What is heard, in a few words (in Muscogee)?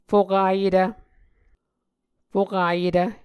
"fokayetv", Muscogee